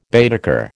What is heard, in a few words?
"Baedeker", American